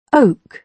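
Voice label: "oak", British English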